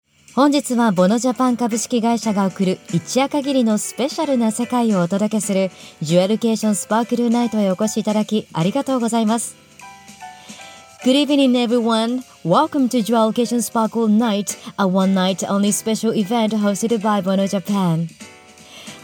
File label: voice sample mc bilingual